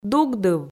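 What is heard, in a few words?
"дугдыв", Komi, female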